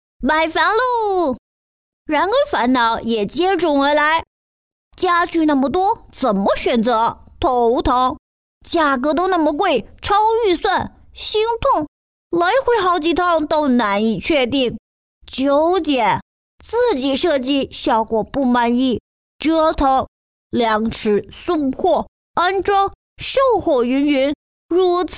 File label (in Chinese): 女 男童 中文